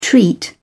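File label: "treat", British English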